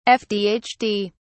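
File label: "fdhd", British English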